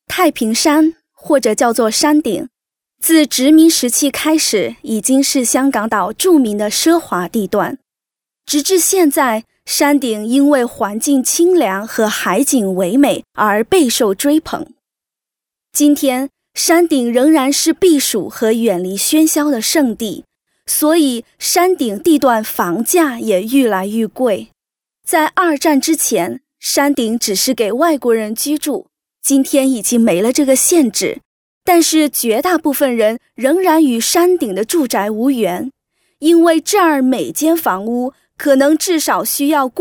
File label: Chinese Mandarin Female